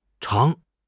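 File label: "chang2", Mandarin Chinese; HSK; HSK4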